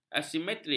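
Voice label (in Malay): "asimetri", Malay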